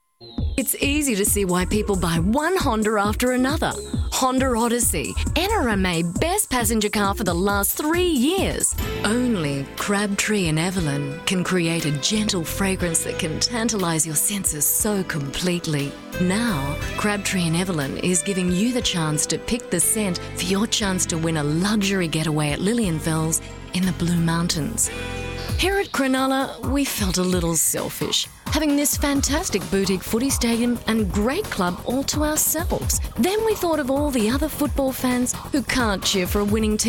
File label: Radio Sample